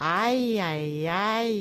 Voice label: "ayyayyay", Yiddish

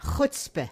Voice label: "chutzpah", Yiddish

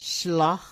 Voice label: "schlock", yiddish

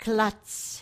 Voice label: "klutz", Yiddish

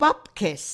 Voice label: "bubkes", Yiddish

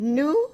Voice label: "nu", Yiddish

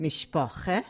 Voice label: "mishpocheh", Yiddish

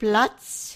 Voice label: "plotz", Yiddish

